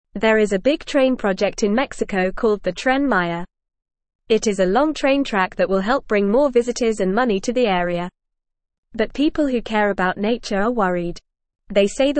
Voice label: English Lower Intermediate FAST Reading; English Newsroom